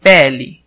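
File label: "skin", Brazilian Portuguese; gcompris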